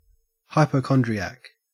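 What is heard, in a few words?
"hypochondriac", Australian English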